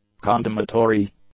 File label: "condemnatory", English; adjectives